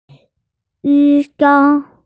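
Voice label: "doctor", Mandarin; yi1sheng1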